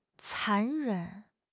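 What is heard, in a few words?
"残忍", Chinese; HSK6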